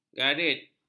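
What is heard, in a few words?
"garit", Malay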